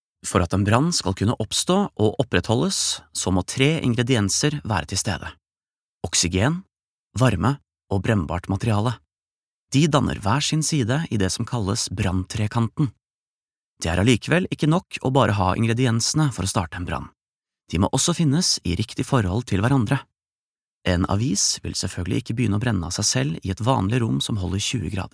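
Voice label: Norwegian elearning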